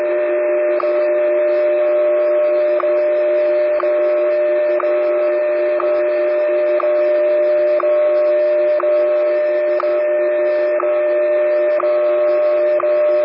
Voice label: WWVH, 10 MHz

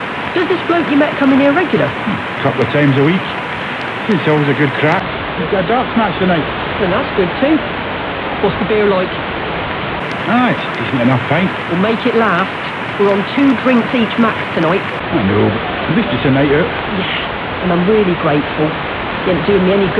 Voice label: 1449 Scotland

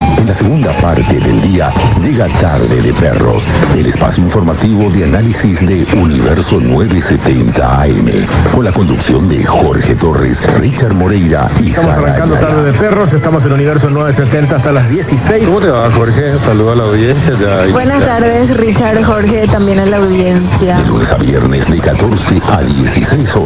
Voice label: AM 970 kHz Paraguay; Universo; Sony SRF-S84 AM FM portable radio

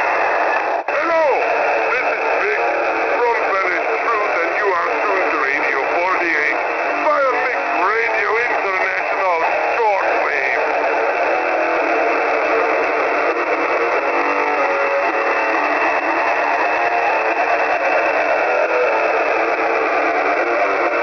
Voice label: Radio 6935.00 usb; Radio 48 via Mix Radio International; pirate radio

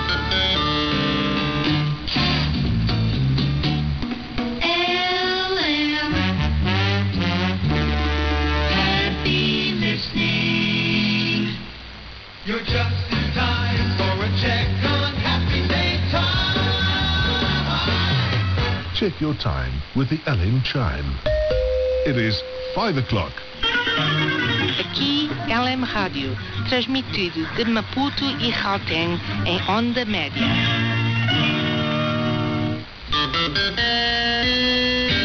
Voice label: LM Radio jingles breakfast show, 702.00 am; kiwisdr

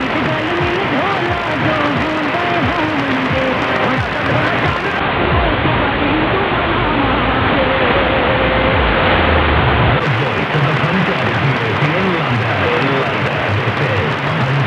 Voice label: 558, Panjab; Tele Panjab

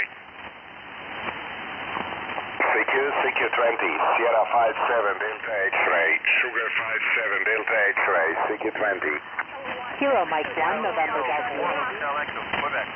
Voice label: 14.238 MHz

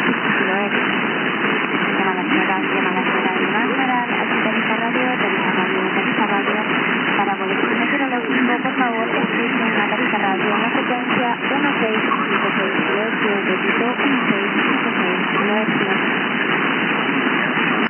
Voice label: Spain 2182 Tarifa Radio

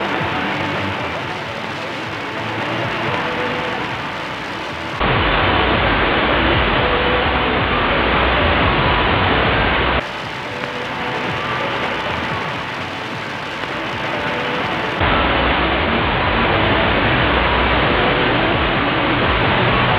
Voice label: 837, Asian, NW

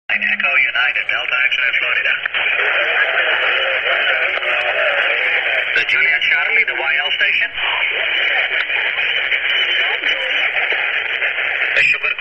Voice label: HAM 7169kHz LSB RT-880G

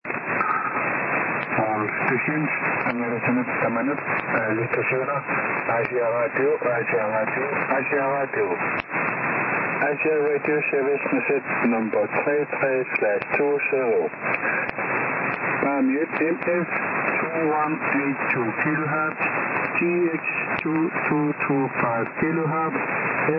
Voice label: Greenland 2129 Aasiaat Radio

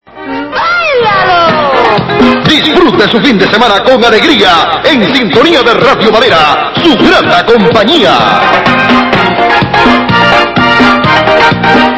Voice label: Radio Valera Local Recording

